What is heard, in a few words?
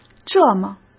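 "这么", Mandarin Chinese; chinese-flashcards; hsk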